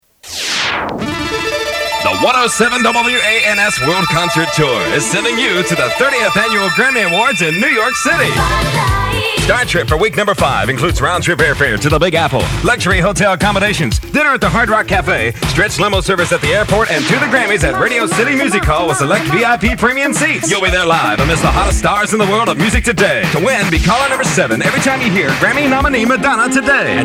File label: commercials